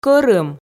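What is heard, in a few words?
"кӧрым", Komi, female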